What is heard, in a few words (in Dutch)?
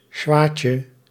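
"sjwatje", Dutch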